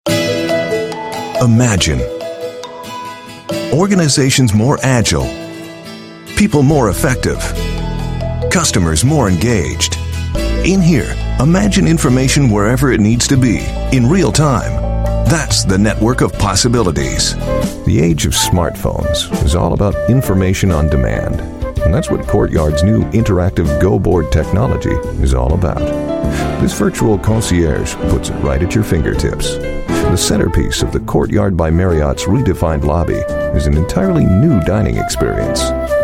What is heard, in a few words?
US English Corporate